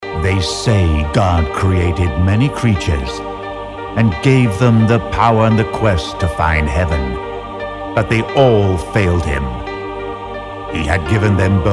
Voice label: Trailer; Kingdom of Blood